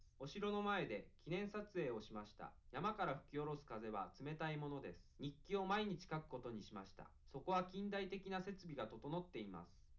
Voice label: male liverec 250ms 1m sim